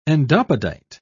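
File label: "endopodite", British English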